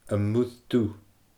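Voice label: "Am Muir Dubh", Scottish Gaelic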